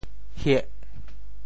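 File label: "hieh8", Teochew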